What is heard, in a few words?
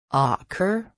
"ocker", nova zelandia; girias